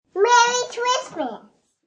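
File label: Kid Says Merry Christmas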